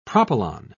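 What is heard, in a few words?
"propylon", British English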